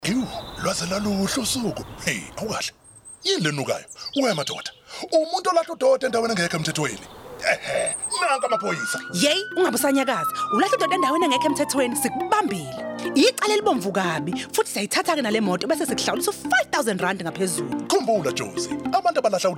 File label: Ad, Zulu; Pikit Up